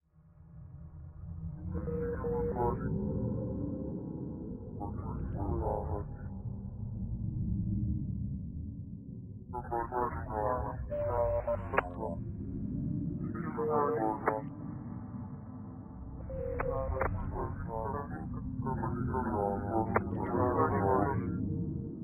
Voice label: Radio Chatter Soundscape